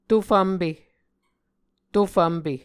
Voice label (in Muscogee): "to fvmpe", Muscogee